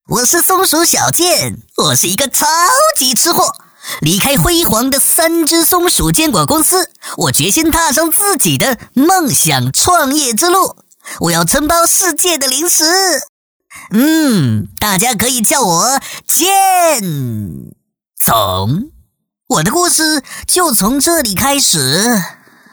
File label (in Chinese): 男 角色 松鼠